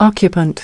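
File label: "Occupant", American English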